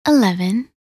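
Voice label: "11", English; minute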